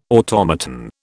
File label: "automaton", British